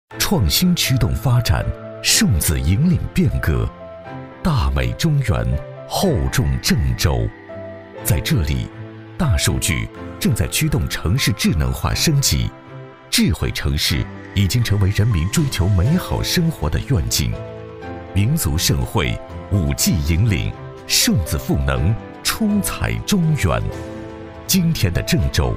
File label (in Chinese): 男 科技感 大气; 智慧城市; 郑州